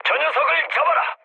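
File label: Korean attack position mood med, speech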